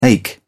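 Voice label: "ache", British English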